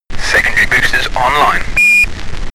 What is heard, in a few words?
astronaut chatter systems online